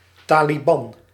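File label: "taliban", Dutch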